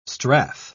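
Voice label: "strath", British English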